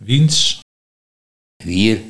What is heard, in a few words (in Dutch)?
"Wier", Nieuw lekkerlands dialect; wiens